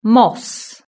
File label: "moss", British English